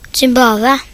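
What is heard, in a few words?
"Zimbabwe", Norwegian; gcompris; geography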